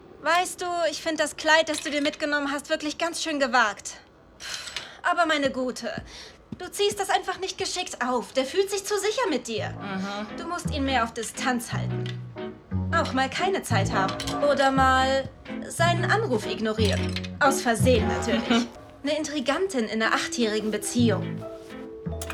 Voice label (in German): Polnische Serie; LOFT VOICES